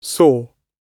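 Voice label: "saw", British English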